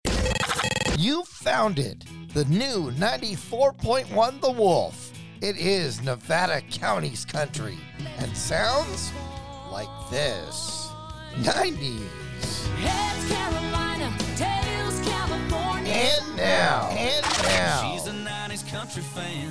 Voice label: Voice Demo